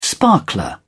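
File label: "sparkler", British English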